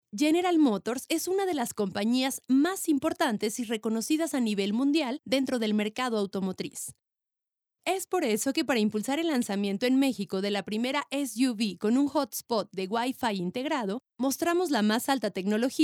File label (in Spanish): lanzamiento, voz en off; general motors